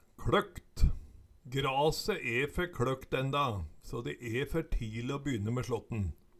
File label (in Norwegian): kLøkt, Numedalsmål; Grase e fer kLøkt enda, så de e fer tile å byne me slåtten